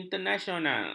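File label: "internasional", Malay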